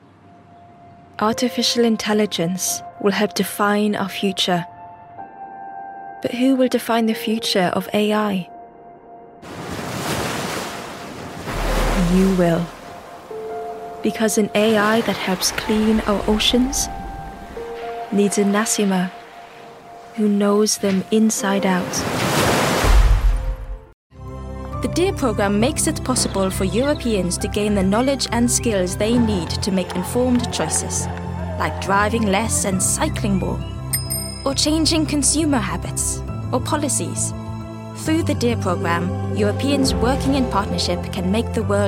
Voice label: English Corporate